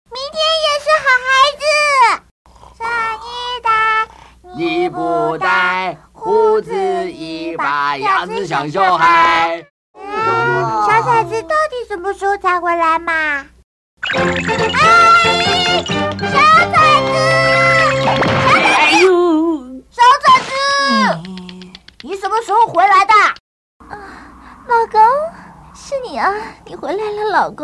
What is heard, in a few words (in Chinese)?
卡通分飾4角